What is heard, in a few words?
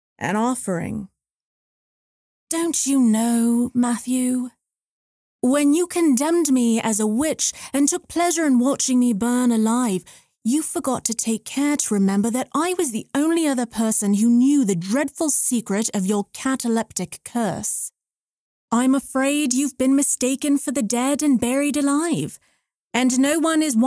Youthful Witch